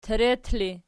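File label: "teret" ergative, Archi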